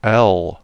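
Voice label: "L", British English; tuxpaint-stamps; symbols; alphabets; uppercase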